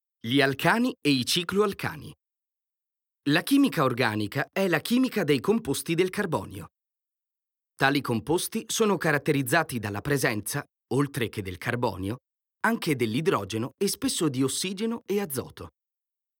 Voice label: Italian e-learning

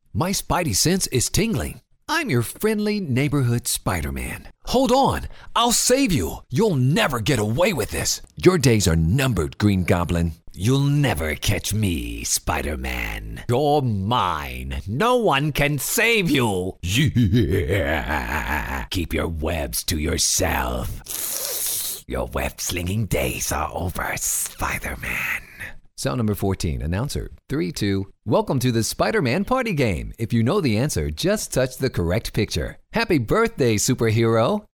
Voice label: english american animation

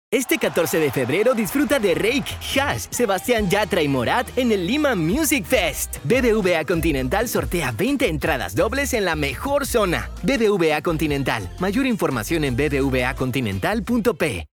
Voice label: Spanish Latin American TV shows

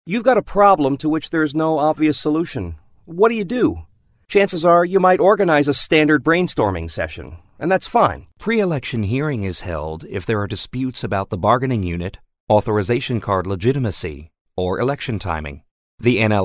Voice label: English American e-learning

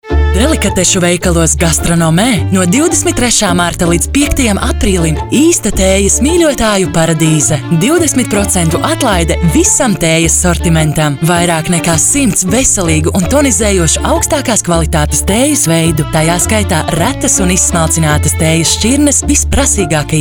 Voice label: latvian commercial

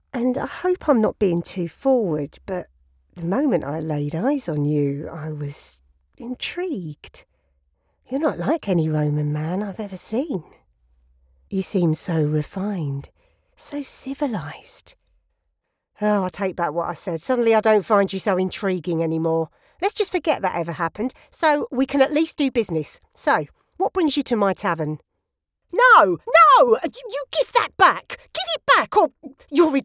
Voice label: english british video games

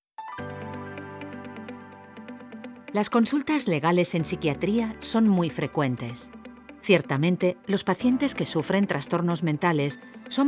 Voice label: spanish podcasts

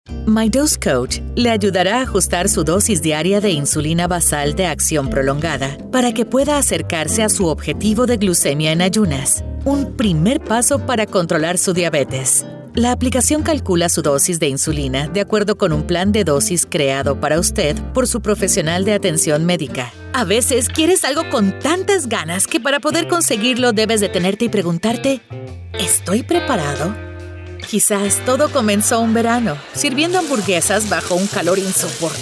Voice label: spanish latin american corporate videos

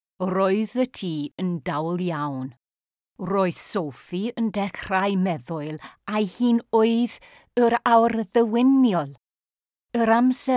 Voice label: welsh commercial, welsh voice actors

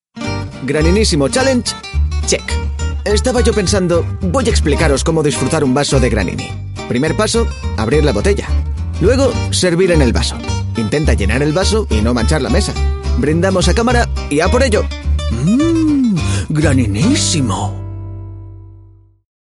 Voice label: spanish commercial

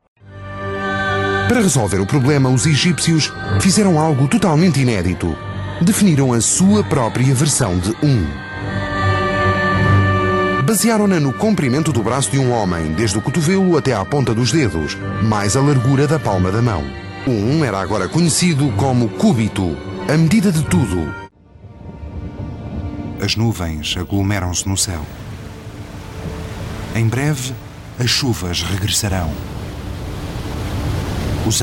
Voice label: portuguese narration

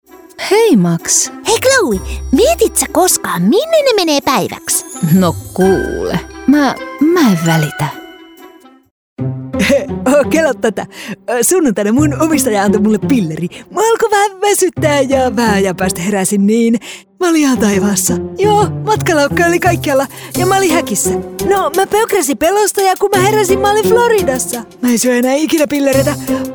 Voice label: finnish animation; finnish voice actors

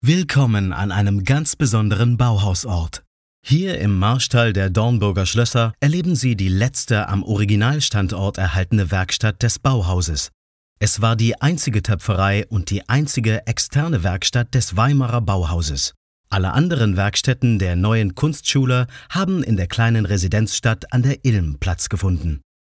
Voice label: german narration

